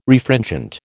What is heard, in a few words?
"Refringent", American